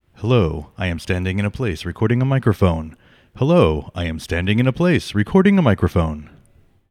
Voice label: Various Room Mic Test